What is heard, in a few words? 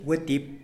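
"wetip", Tlacochahuaya Zapotec; wasp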